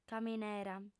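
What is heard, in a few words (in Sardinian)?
"caminera", female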